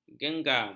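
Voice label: "genggam", Malay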